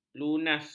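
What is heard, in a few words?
"lunas", Malay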